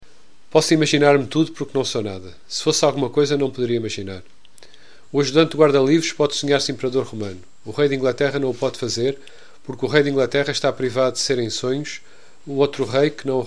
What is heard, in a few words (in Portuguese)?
monotonia voz; F Pessoa; Desassossego